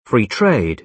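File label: "free trade", British English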